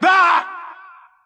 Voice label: "rah", voice; ooh ahh